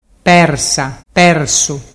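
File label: "persa persu", Ligurian dialect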